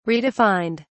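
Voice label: "redefined", English, British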